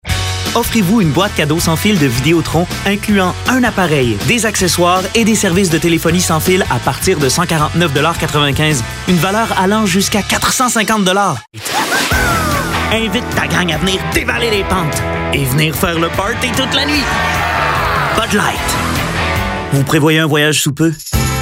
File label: Commercials Voice Over French